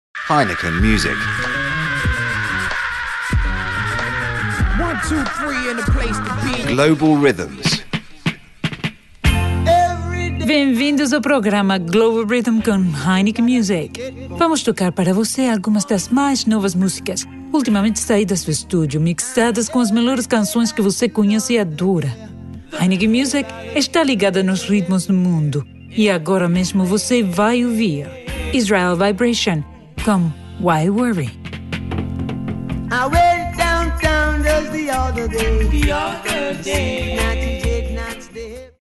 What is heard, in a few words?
Radio Portuguese